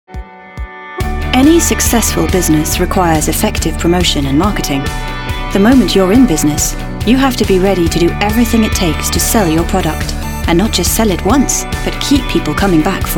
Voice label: corporate